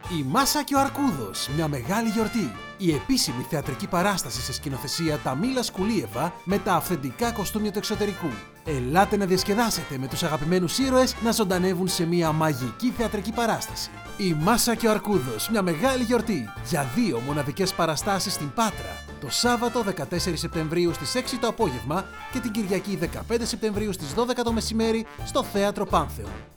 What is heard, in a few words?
Radio Spot; ΠΑΤΡΑ